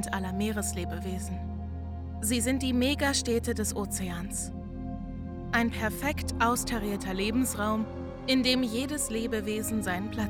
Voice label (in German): Dokumentation Korallenriffe